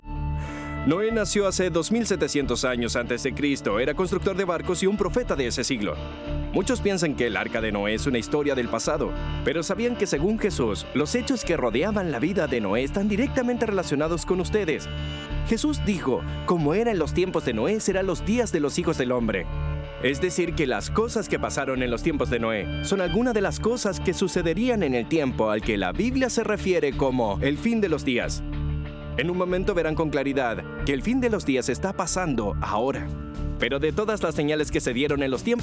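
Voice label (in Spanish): latam male commercial; voice demos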